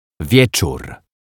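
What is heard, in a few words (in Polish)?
"wieczór", Polish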